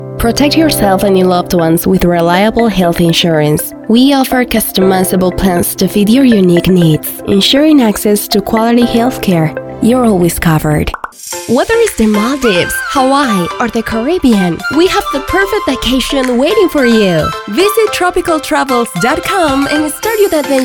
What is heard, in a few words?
ingles